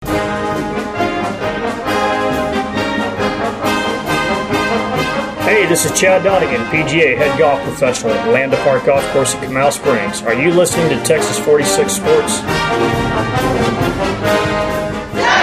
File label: Sports intro Cheerleaders